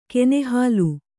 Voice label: "ಕೆನೆಹಾಲು", Kannada